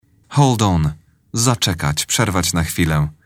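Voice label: "hold on", English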